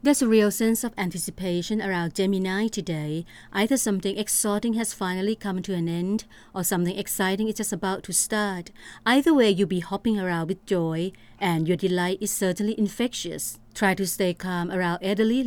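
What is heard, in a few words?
TH AccEN, Thai accented English; GoLocalise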